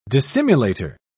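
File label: "dissimulator", British English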